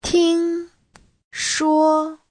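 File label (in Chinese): "ting1 shuo1" Chinese; 听说 : nghe nói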